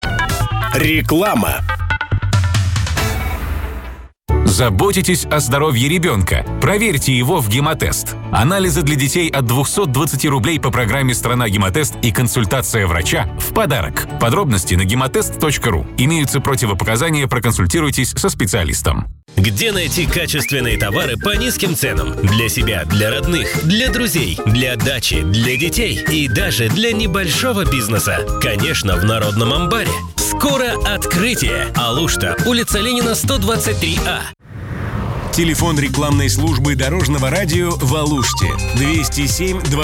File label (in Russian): Mestnaya reklama, Radio; Dorozhnoe Radio; Alushta; 102.1 FM